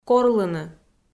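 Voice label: "корлыны", Komi, female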